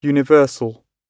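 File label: "universal", British English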